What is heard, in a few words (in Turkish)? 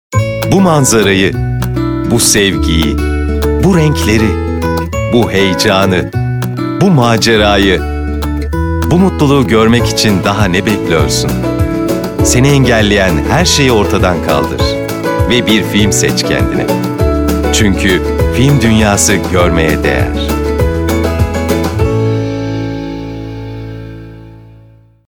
reklam; dunyagoz